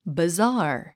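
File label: "bazaar", English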